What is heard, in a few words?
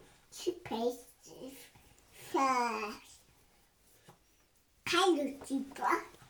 Child Talking Toothpaste Toothbrush